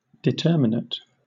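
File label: "determinate", British English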